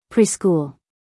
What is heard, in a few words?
"preschool", US female; 1000 hours